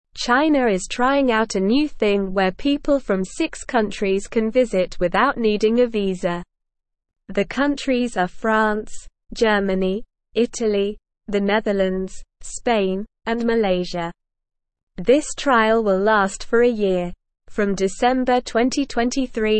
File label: English Newsroom Upper Intermediate SLOW Reading; China Trials Visa Free Travel for Six Countries